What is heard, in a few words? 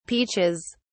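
"peaches", British English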